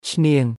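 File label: "ឈ្នាង", Khmer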